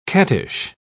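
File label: "cattish", British English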